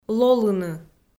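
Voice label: "лолыны" Komi, female; kpv audio dict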